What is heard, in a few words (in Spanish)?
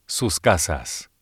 sus casas, espanol